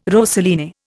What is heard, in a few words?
"rosaline", Indian